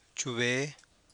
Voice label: "chuve̱e", Copala Triqui

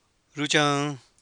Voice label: "ruxaan", Copala Triqui

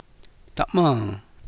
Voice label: "ta'maa̱n", Copala Triqui

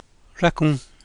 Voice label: "racu̱nj", Copala Triqui

